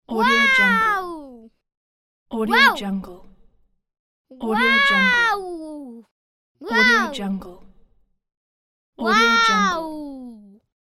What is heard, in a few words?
little boy voice loud wow